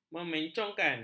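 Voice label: "memencongkan", Malay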